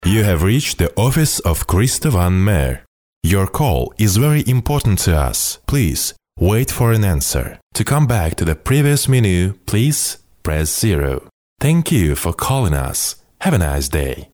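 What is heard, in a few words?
English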